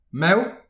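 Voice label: "mezv", Breton; petit precis de prononciation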